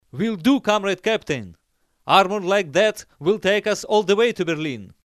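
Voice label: Russian Russia male character; russian voice over talent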